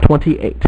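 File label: "twenty-eight", male; MacCribbage; InterStellar MacCribbage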